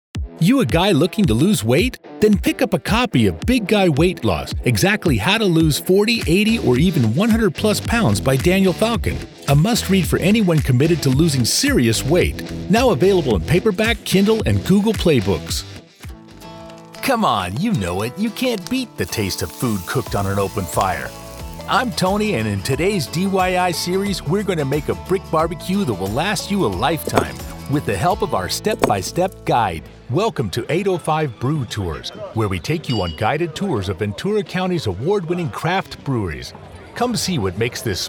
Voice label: Narration